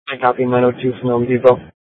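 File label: police dispatch, Scottsdale PD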